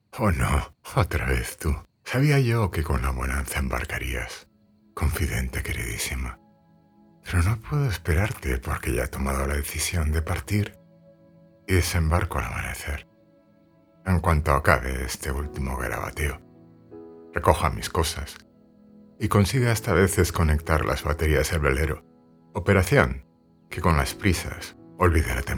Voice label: male spanish castilian audiobook narration

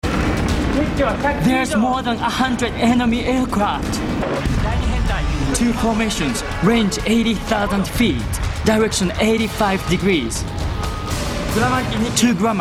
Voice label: male, English with Japanese accent; pacific megaship

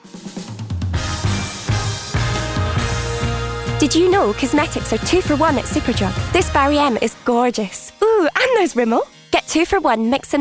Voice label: female English Scottish commercial; superdrug

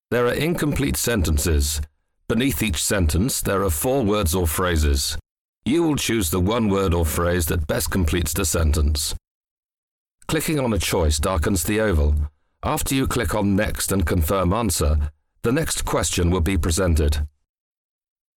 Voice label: male english e-learning compilation

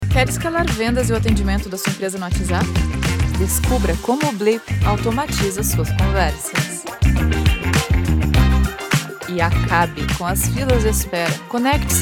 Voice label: female brazilian portuguese commercial; blip

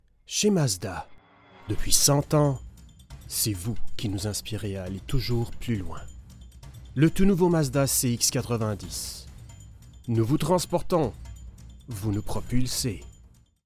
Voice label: male french canadian; english canadian; mazda

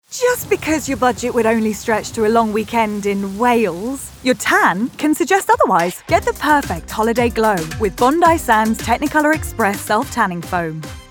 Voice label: female English commercial; bondi sands

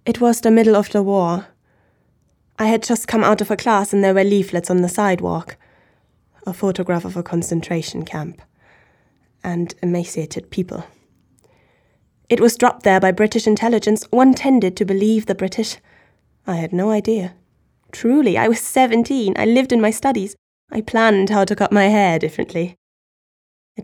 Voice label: female English with German accent; after the fall

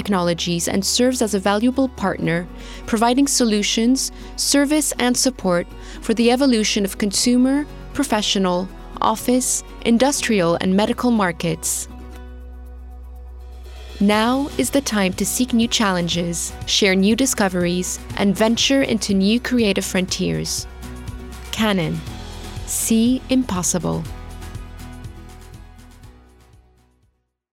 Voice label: female transatlantic accent corporate; french and moroccan arabic; explainer; canon

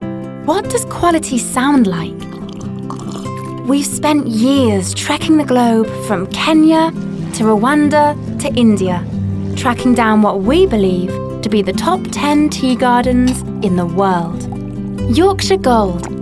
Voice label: female English RP commercial; english rp yorkshire tea commercial

